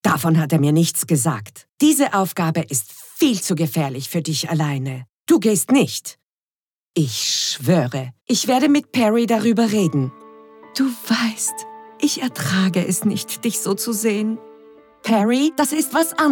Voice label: german austrian impersonations